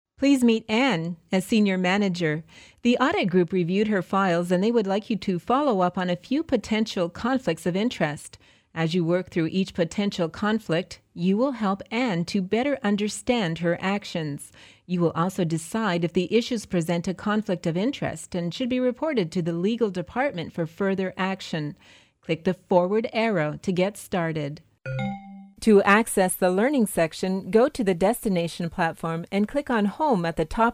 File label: elearning